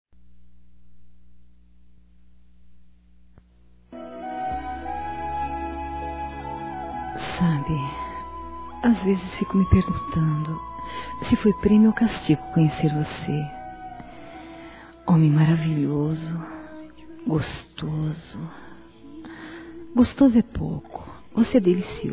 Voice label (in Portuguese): AMANTE ERÓTICA, fem; Telemensagens; Sensuais